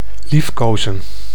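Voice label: "liefkozen", Dutch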